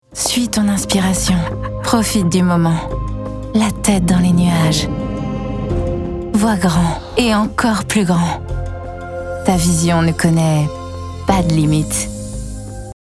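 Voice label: french tv spot; tamaris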